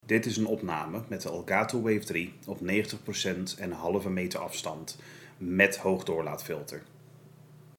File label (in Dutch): Microfoonopname hoogdoorlaatfilter aan; Elgato Wave3; Review: Elgato Wave:3